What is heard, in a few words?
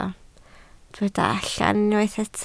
"allan", Welsh